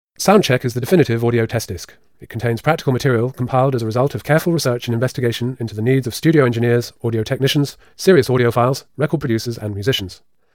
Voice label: Spoken word fast